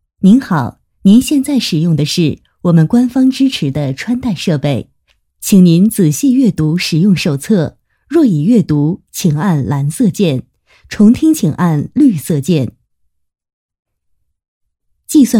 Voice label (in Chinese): 女 语音 IC 语音提示 知性稳重